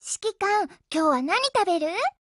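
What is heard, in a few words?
HELLO Japanese, Voice; GF Alarm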